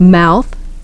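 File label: "mouth", English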